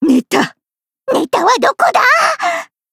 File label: Cafe monolog; BA; Meru